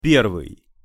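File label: "pervyj", Russian pronunciation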